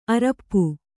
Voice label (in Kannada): "ಅರಿಪೂ", Kannada